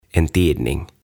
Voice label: "En Tidning" "Sanomalehti"

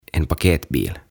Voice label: "En paketbil" "Pakettiauto"

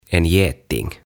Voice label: "En geting" Ampiainen

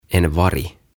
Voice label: "En varg", "Susi"

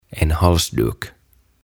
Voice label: "En Halsduk" Kaulahuivi

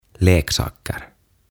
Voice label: "Leksaker" "Lelut"

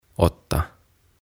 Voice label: "Åtta" "Kahdeksan"

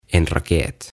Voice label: "En raket", "Raketti"

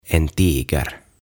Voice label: "En tiger" Tiikeri

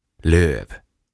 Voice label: "Löv" "Lehti"

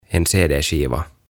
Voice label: "En CD Skiva" CD Levy

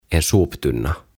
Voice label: "En soptunna" "Roskakori"

